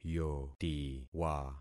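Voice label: "yotiwa", concatenated syllables; Affixing experiment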